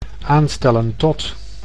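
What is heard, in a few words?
"aanstellen tot", Dutch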